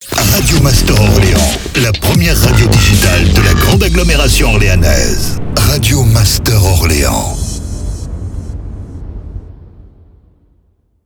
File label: Sweeper MEDIUM SLOW; Orléans